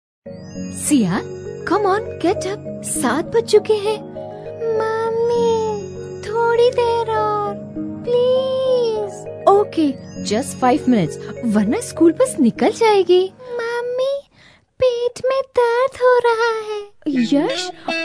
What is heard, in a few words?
Educational story, female voice; Sia